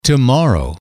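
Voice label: "tomorrow", male, english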